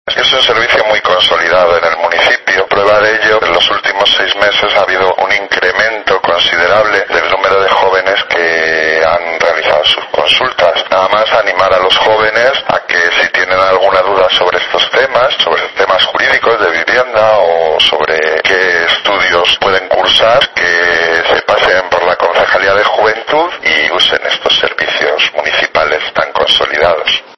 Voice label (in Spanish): noticias